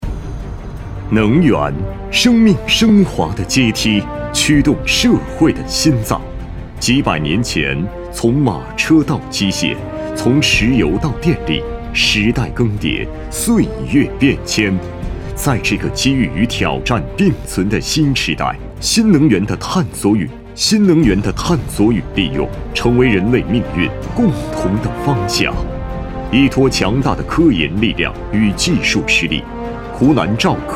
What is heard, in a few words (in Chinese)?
男 宣传片 企业大气浑厚; 湖南